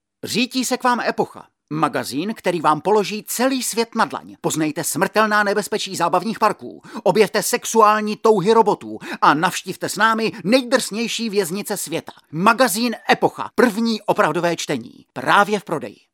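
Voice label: Male Czech commercial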